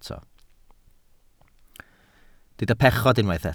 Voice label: "pechod", Welsh